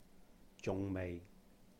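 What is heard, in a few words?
"juhng-meih", Cantonese; not yet; 仲未; zung6mei6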